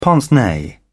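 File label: "pince nez", British English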